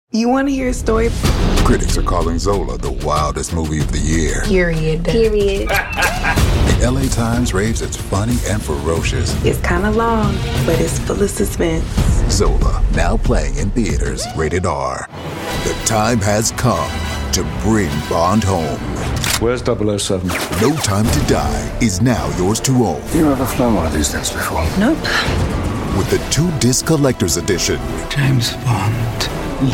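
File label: American English, Trailers; voice demos; GoLocalise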